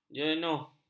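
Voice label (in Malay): "jenuh", Malay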